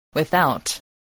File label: "without", voice, english